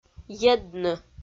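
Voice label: "едны", Komi, female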